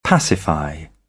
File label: "pacify", British English